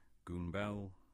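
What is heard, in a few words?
"Goonbell", English; place-names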